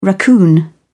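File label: "raccoon", English, uk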